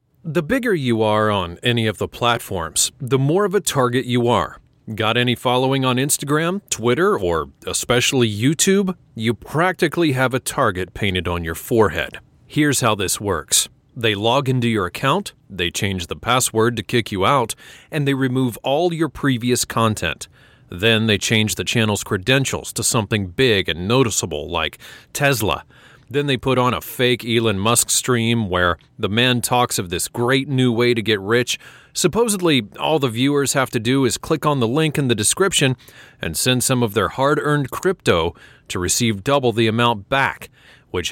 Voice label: american natural